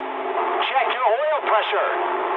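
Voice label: damaged oil mood medium, speech English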